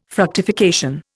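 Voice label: "fructification", Indian English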